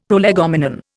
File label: "prolegomenon", Indian; Dictionary